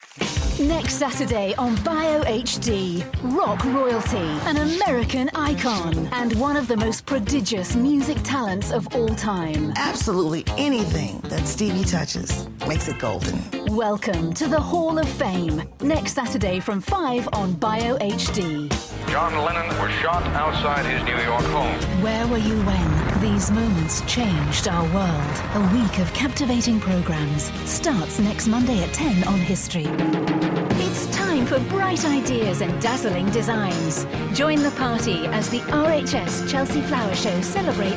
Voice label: Promo